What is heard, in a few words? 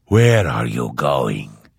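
Follow, Vo; Morrowind GOTY GOG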